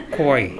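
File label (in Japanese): "kowae", 南山形; 疲れる。体調不良。疲れて苦しい。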